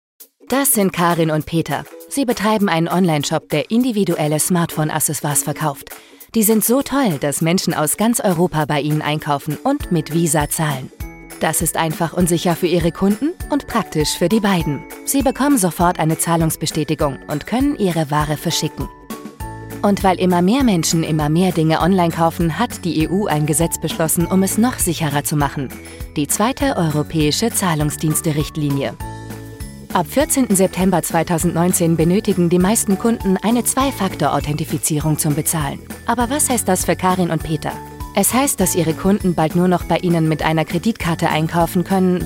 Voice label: german explainer videos, german voice actors